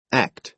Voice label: "act", ame